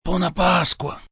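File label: Easter Italian M, male, Italian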